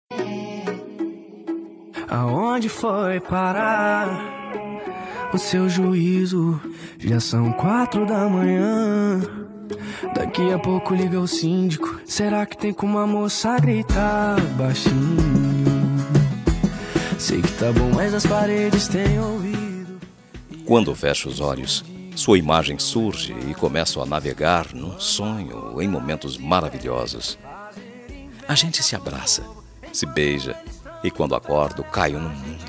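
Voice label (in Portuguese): ROMANTICA DISTANTE male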